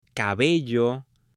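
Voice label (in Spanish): "cabello" Spanish; CUERPO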